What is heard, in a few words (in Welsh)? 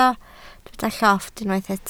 "llofft", Welsh